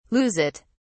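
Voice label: "lose it", British English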